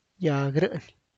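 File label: young tree, Tlacochahuaya Zapotec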